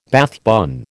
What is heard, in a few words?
"Bath bun", American